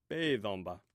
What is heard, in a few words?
"pethonba", Omaha